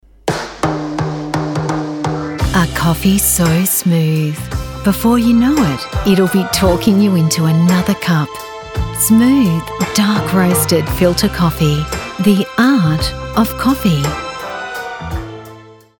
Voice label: english australian